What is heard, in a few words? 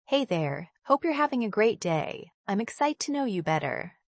Young American